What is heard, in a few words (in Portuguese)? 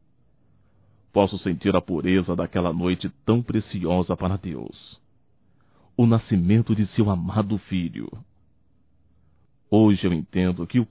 Neutro Masculino, Natal; Natal e ano novo; Telemensagens 2017